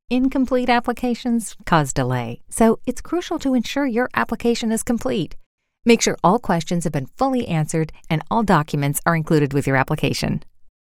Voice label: How to Sincere Warm